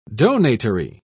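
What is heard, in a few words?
"donatory", British English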